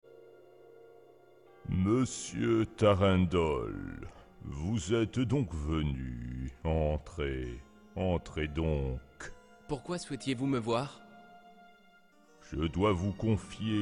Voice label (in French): voix tres grave Mage Film animation